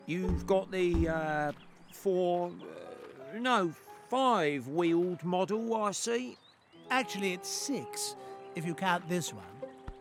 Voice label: Animation; Toads and Friends; Chief Weasel